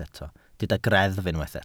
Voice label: "greddf", Welsh